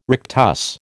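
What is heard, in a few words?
"rectus", American English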